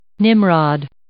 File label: "nimrod", English